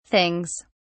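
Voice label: "things", British English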